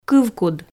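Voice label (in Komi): "кывкуд", Komi, female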